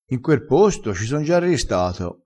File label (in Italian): "rista", crespinese